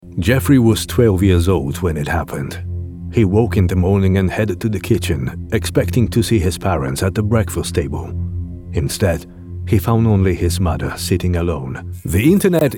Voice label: narrative english